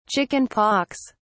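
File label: "chicken-pox", British English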